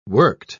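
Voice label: "worked", British English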